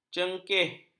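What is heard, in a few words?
"cengkih", Malay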